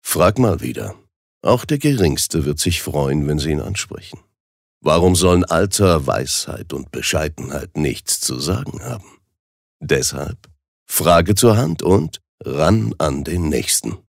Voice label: Werbung Cool

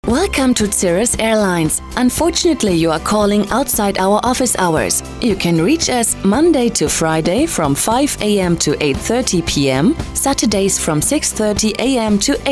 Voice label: Eng US Fremdtake Neutral

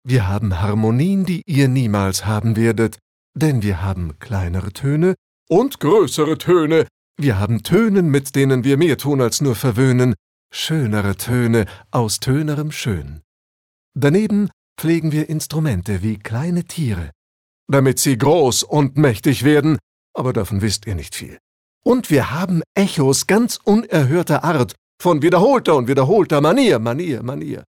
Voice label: Schauspiel Lebendig

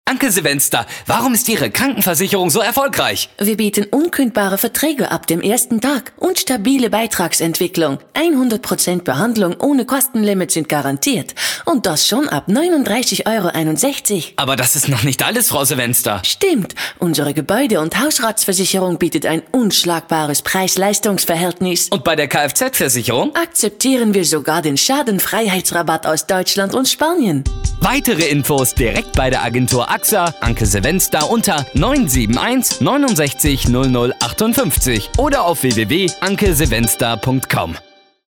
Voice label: Akzent Hollaendisch

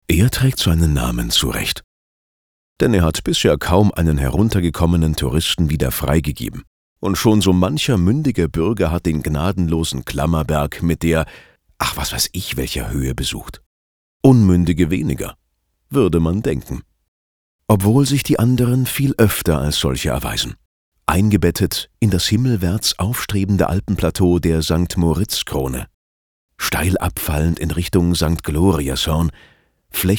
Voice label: Sanft; Der Klammerberg

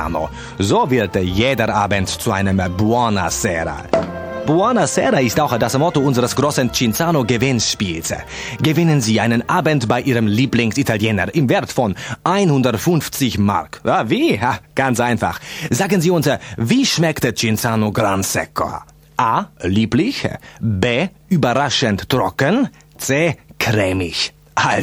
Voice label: Akzent Italienisch

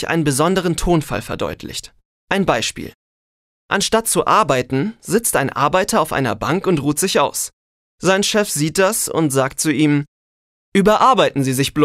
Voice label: Sarkasmus Neutral

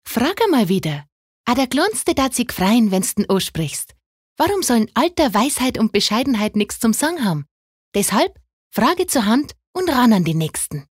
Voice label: Dialekt Bayerisch

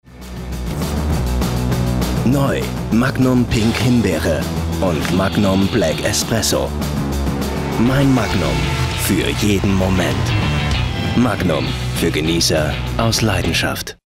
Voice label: Kraftvoll

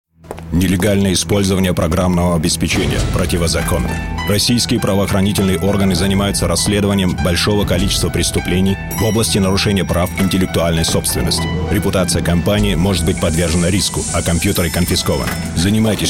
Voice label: Neutral; RU

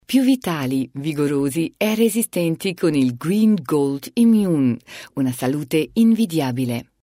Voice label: IT Werbung Freundlich

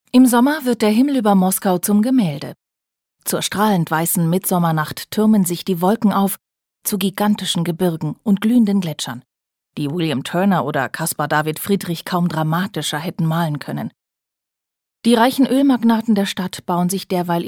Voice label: Kommentar Neutral

